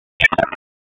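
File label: Police Southwest District Tactical 1, p25; Raleigh; wake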